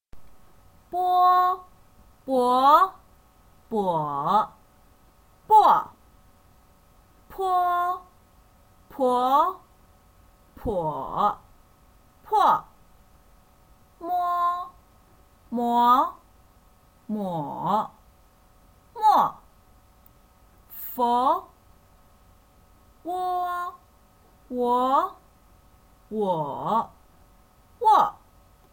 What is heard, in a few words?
initials blend with o sound, Mandarin